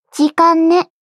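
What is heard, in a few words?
start, Japanese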